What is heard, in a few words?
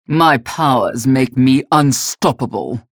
menu chatter; galena; unholy paladin; frag